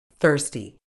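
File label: "thirsty", British English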